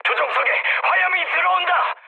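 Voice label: Korean on fire mood med; War Thunder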